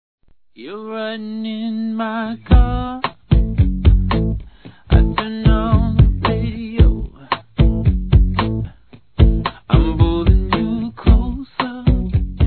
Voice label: NEUTRO (F); Aniversários; Aniversários diversos; fem

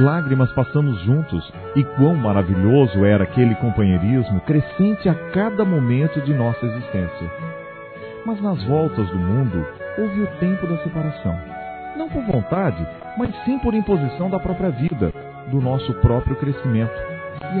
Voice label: Como Vai Você Masculino, Telemensagens Amizade

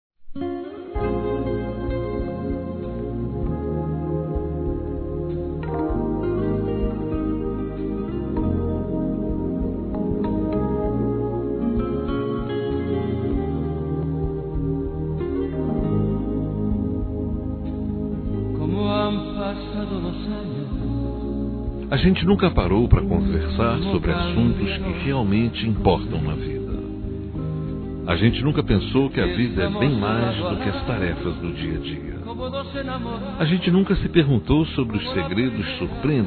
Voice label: CONQUISTA M; Telemensagens; Conquistas Cantadas paqueras; masc; Telemensagens 2017

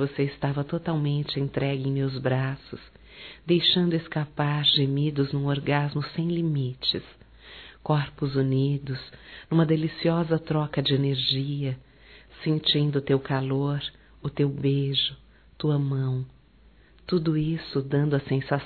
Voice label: DIA DOS NAMORADOS ERóTICO SUAVE (F), fem; Telemensagens 2017

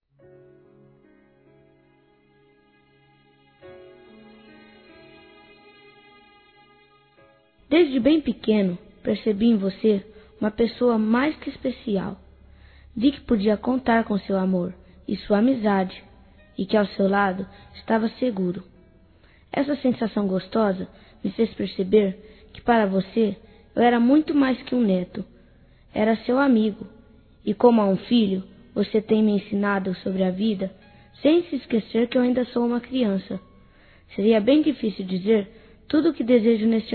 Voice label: AVO(A) M INFANTIL

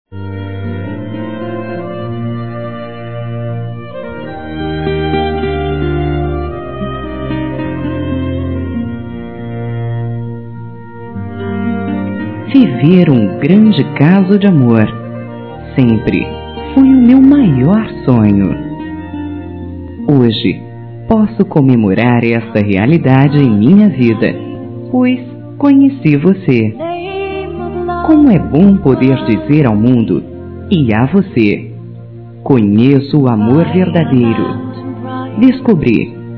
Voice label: GLS Feminino; Dia Dos namorados; Telemensagens 2017

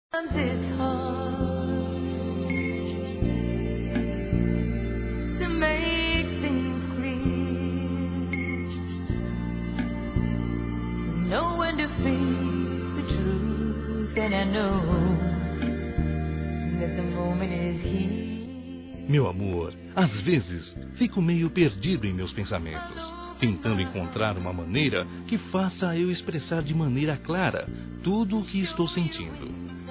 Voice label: ANIV. ROMANTICO M; Aniversários; masc

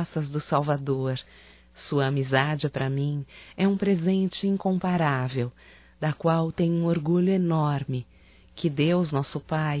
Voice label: NATAL ANO NOVO AMIGA (F); Telemensagens 2017

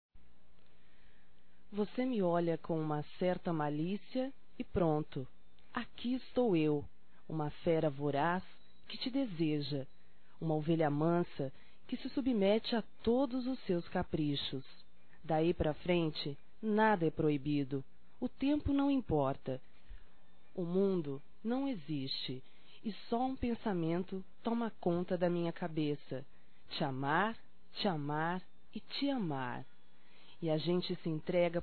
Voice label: Erótica Leve Feminino, Românticas

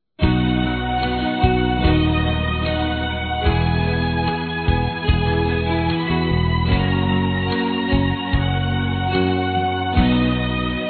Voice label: Natal Irmao Feminino; Telemensagens; Natal e Ano novo

